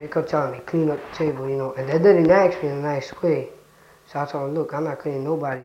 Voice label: Conversation with 17 year old male New York New York, american english dialect